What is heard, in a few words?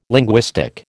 "linguistic", American